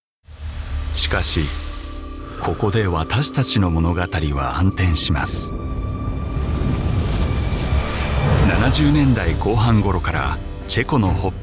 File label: documentary